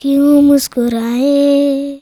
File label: CHILD VX, Indian Traditions